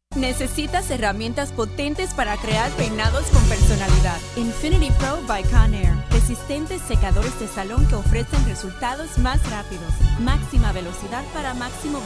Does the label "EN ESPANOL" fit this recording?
Yes